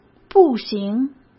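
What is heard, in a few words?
"步行", Mandarin Chinese; hsk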